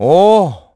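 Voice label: Vox Happy, Korean; Riheet; kingsraid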